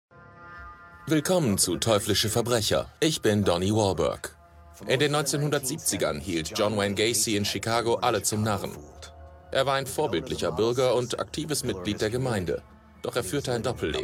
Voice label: trailer crime investigation; teuflische verbrecher; LOFT VOICES